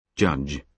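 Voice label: "judge", British English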